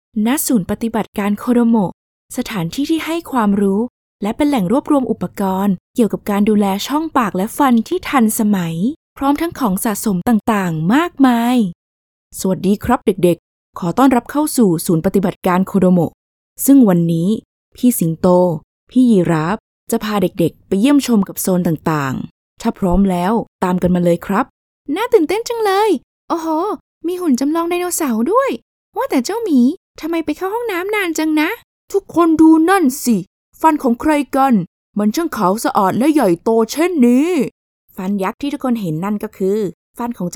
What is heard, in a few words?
thai animation